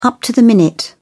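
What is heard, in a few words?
"up to the minute", English, British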